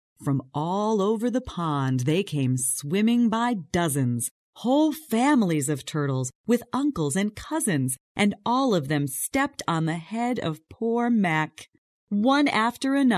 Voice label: audio book voices; narration